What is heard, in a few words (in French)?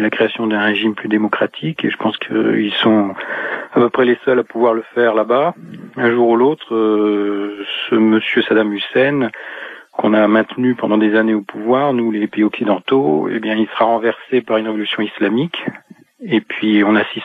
Irak repondeur